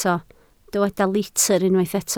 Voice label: "litr" Welsh

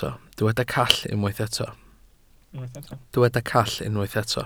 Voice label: "call", Welsh

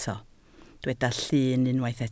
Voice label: "llyn" Welsh

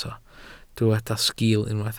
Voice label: "sgil", Welsh

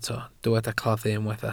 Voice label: "claddu", Welsh

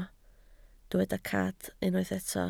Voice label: "cad", Welsh

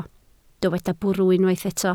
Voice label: "bwrw", Welsh

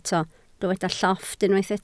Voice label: "llofft", Welsh

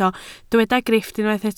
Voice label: "grifft", Welsh

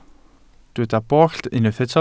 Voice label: "bollt", Welsh

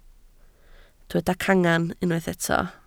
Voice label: "cangen", Welsh

